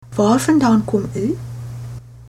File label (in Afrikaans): where from, afrikaans